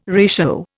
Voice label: "ratio", Indian